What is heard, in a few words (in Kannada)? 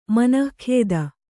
"ಮನೋಗತ", Kannada